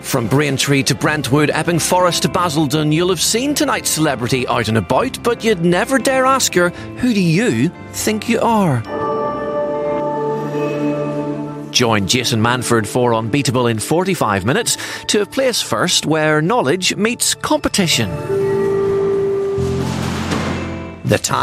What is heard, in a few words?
continuity; bbc one and two